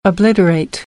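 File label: "obliterate", American English; AmericanBritish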